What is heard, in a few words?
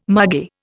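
"margay", Indian English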